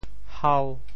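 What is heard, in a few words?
"hau5", Teochew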